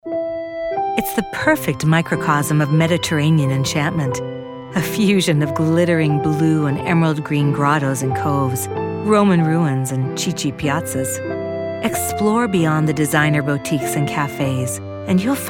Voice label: Narrative

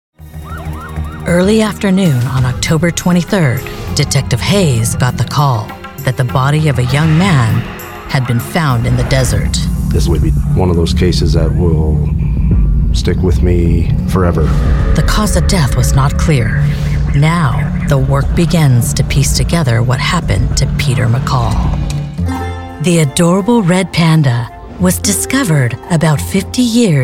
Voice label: Narration

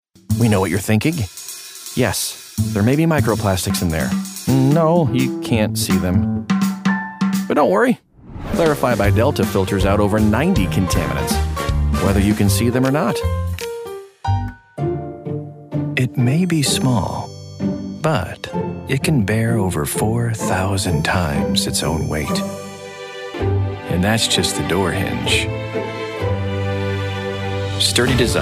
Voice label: Commercial

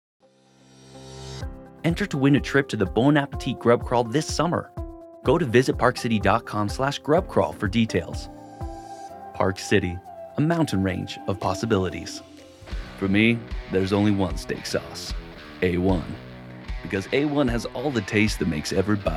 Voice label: Commercial

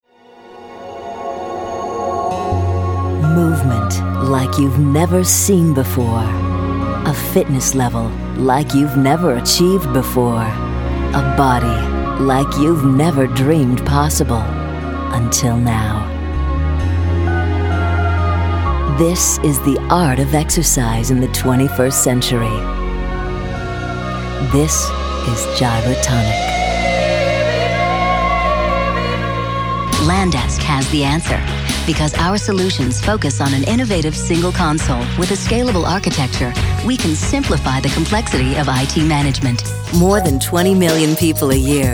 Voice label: Narration

